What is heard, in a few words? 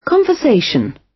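"conversation", British; Language, linguistics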